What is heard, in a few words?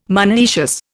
"monoecious", Indian